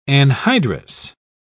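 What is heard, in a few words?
"anhydrous", British English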